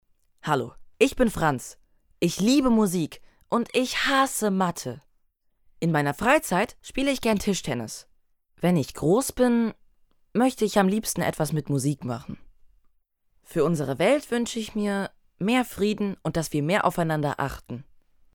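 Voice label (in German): intro, Kindersprecher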